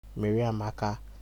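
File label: "mary amaka", Nigerian Pidgin, male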